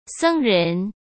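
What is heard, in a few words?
"僧人" Mandarin Chinese; chinese-flashcards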